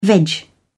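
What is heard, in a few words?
"veg", British English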